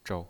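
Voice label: "zhou" Mandarin Chinese pinyin voice, neutral tone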